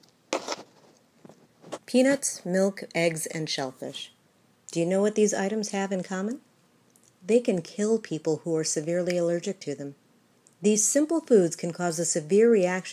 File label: Psa